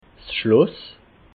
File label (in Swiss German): "schloss", alsace